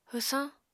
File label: "hwsañ", Apatani